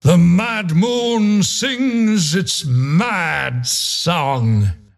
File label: announcer ownage; dlc diretide2020 killing spree